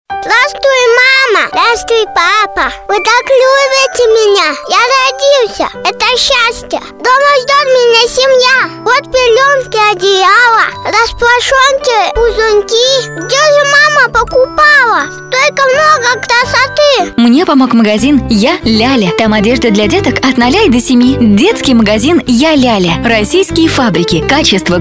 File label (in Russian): malchik 5 let, golosa treki